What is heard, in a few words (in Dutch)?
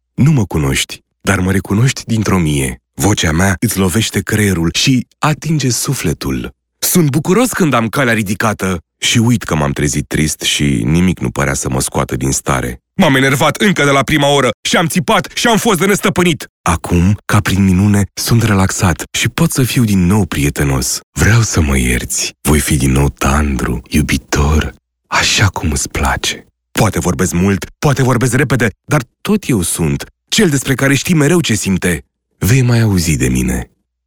Roemeens Man